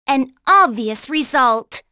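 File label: Vox Victory, voices heroes English; kingsraid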